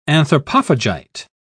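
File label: "anthropophagite", English, British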